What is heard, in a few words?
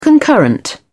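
"concurrent", British English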